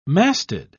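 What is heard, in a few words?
"masted", British English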